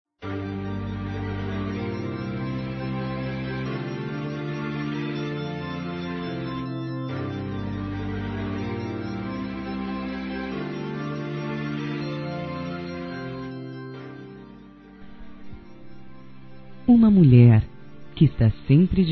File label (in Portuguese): Dia das Mães Neutro Feminino